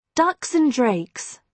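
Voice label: "ducks and drakes", British English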